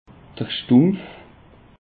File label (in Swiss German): "stumpf", alsace; peche